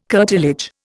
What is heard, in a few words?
"curtilage", Indian